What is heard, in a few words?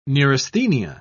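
"neurasthenia", British English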